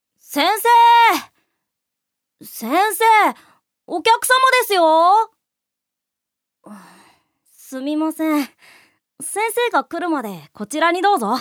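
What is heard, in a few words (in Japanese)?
セリフ, 女性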